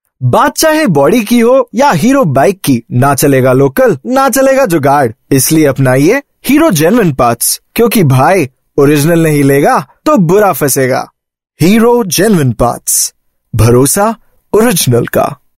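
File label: Hindi Radio male voice over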